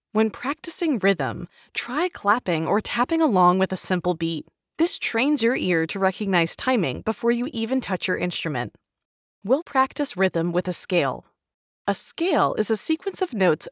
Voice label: Music eLearning Sample; elearning corporate training